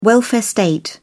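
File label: "welfare state", British English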